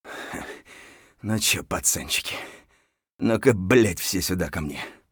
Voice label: mutter; Escape from Tarkov